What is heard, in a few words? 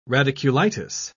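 "radiculitis", British English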